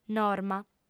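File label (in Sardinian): "norma", female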